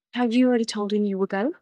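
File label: Voice Acting es, female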